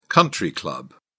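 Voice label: "country club", British English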